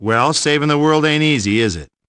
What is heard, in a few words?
jeers; ash